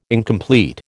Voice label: "incomplete", American